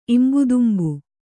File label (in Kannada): "ಇಂಬುದುಂಬು", kannada